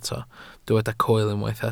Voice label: "coel", welsh